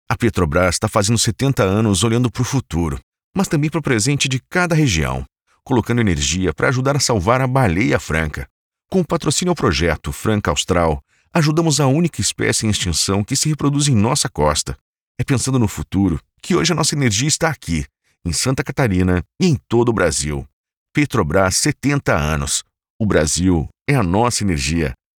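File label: Brazilian Portuguese, male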